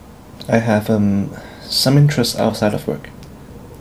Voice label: interviewee male